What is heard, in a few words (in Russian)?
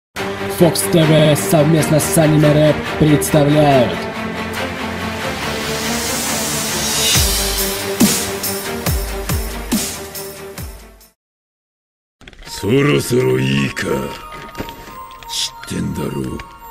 реп; animerap; реп про сасори из красных песков; akasuna no sasori rap